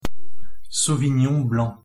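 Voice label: pronunciation french sauvignon blanc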